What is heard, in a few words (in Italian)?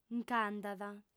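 "nc'andat", lingua sarda, fem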